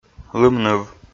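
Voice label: "лымныв", Komi, male; kpv audio dict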